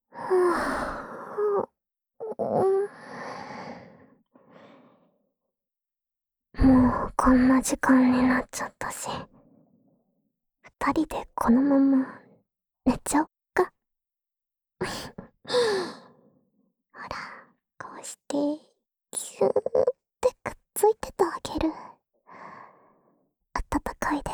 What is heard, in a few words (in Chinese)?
安眠用陪睡循环（右侧）; 安眠用陪睡循环（右侧）